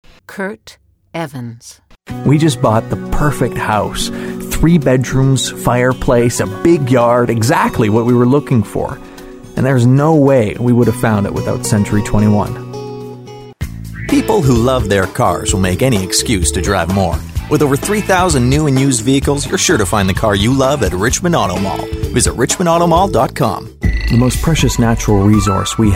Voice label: Commercial Men, male VO; redmanagement